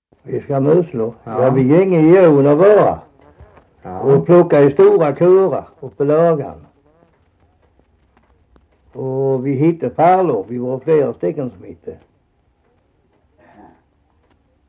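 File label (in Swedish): Hasslöv Halland, svenska sagor dialekter; Folkminnen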